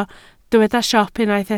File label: "siop", Welsh